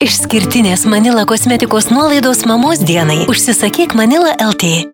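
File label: Lithuanian, female, commercial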